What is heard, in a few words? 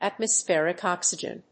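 "atmospheric oxygen", English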